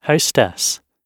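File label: "hostess" British English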